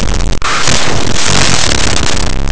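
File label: "septiembre", Spanish; laboratori fonetica; oclusives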